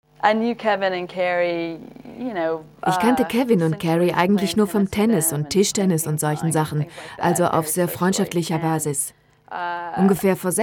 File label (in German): Voiceover